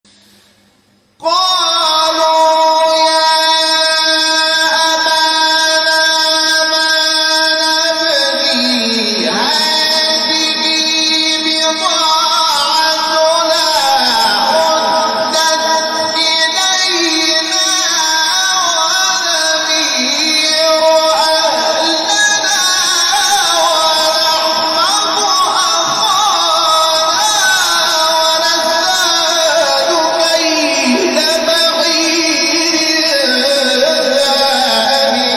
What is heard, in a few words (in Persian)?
تلاوت آیه 65 سوره یوسف; محمود شحات انور